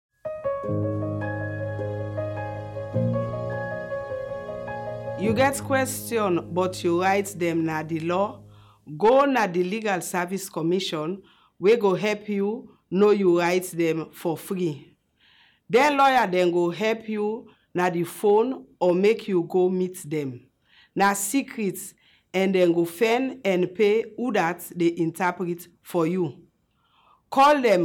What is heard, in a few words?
Sierra Leone Krio